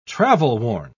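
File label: "travel-worn", British English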